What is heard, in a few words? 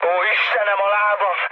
damaged pilot, speech hun; War Thunder